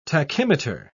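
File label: "tachymeter", British English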